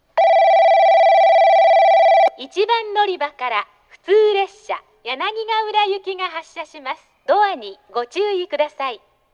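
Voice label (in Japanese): 1番のりば発車放送, 女声; 行橋; 普通 柳ヶ浦行き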